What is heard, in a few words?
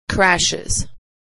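"crashes", American English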